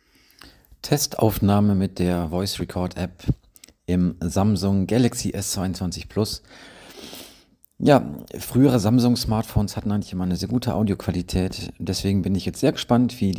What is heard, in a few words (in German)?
Samsung Galaxy S22 Plus Testaufnahme